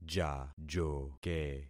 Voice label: "jajoke", concat syllables; Affixing experiment